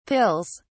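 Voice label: "pills", British English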